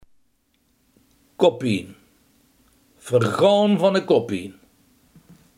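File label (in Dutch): hoofdpijn, Limburgse gesproken woordenboeken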